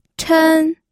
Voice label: "chen1", pinyin

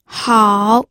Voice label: "hao3", pinyin audio

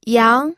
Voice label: "yang2", pinyin

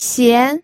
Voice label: "xian2", pinyin

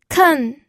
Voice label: "ken4", pinyin

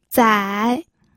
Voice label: "zai3", pinyin

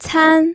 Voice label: "can1", pinyin

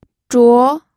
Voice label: "zhuo2", pinyin

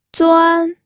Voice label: "zuan1", pinyin

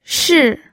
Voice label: "shi4", pinyin

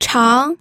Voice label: "chang2", pinyin

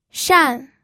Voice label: "shan4", pinyin

